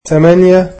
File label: "eight", egyptian